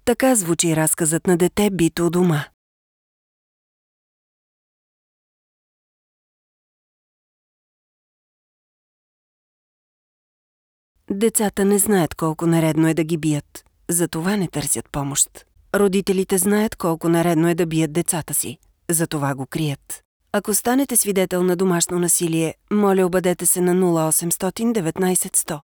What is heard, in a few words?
radio spot